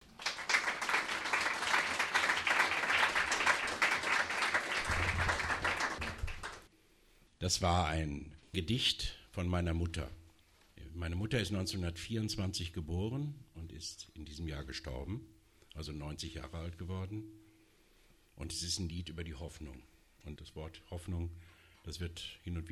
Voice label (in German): ansage; abgesang